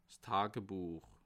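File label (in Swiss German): "tagebuech", Bas Rhin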